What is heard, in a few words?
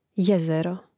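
"jezero", Croatian; mreznik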